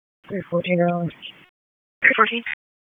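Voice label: Police Northeast District Dispatch; Raleigh; wake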